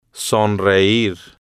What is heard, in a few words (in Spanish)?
"sonreir", Spanish